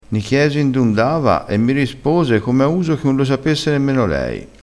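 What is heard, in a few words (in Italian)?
"come a uso che", dialettale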